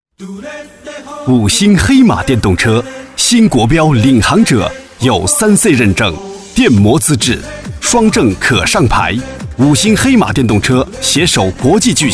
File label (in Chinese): C男 促销 黑马电动车